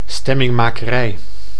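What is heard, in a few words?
"stemminmakerij", Dutch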